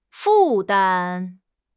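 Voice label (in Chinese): "负担", Mandarin Chinese; HSK6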